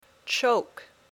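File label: "choke", English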